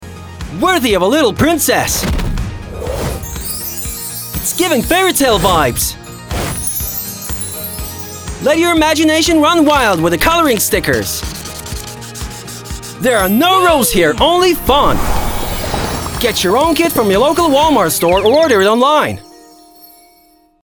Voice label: male russian english with international accent commerc; Devine Voices